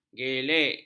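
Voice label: "gelek", Malay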